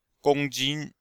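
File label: "gongjin", Chinese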